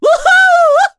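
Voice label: vox Happy, Korean; Ezekiel; kingsraid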